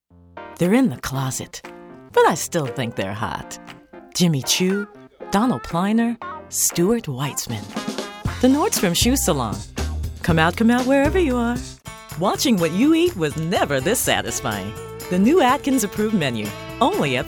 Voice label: English, females